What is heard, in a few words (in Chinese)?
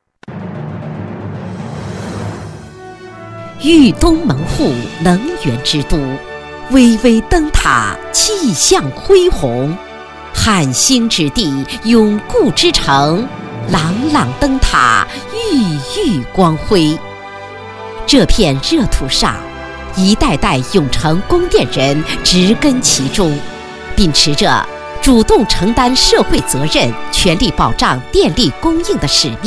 女 专题 大气抒情; 供电所